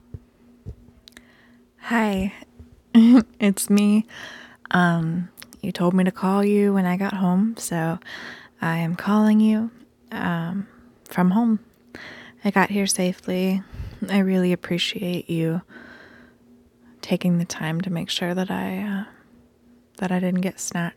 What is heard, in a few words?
Voicemail